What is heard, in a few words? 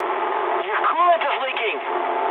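damaged water mood medium, speech English; War Thunder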